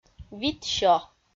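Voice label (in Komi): "витсё", Komi, female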